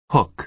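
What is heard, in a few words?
"hook", American English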